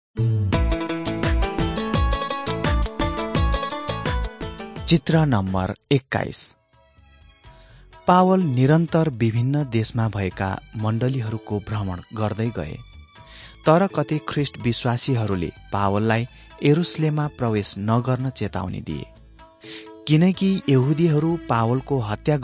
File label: acts of the holy spirit nepali